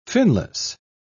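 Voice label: "finless", British English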